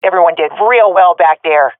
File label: round won no casualities, swat fem; vo; Counter Strike Model Pack; CS:GO